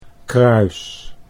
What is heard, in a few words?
"kruis", Dutch